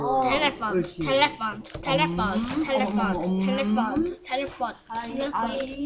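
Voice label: "telephone", spoken word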